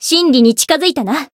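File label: voice growth, Japanese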